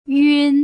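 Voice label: "yun", Putonghua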